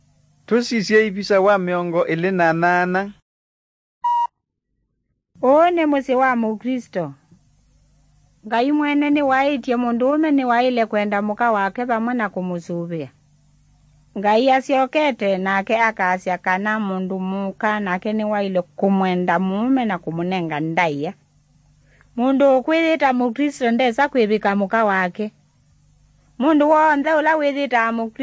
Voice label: kikamba drama; good news; bible